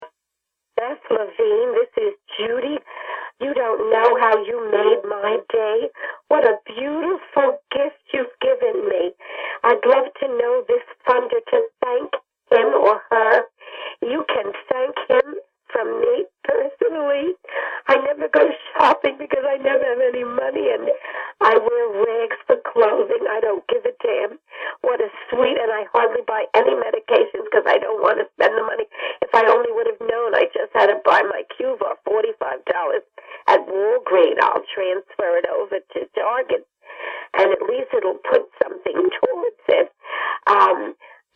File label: Voicemail